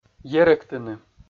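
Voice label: "ерӧктыны", Komi-Zyrian, male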